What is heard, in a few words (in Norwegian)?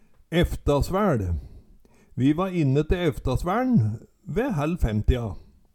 "eftasvaeL", Numedalsmål; Måltid litt utpå ettermiddagen (kl. 16 -17)